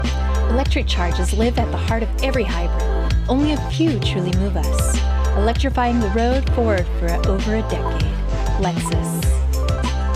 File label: Narration Commercial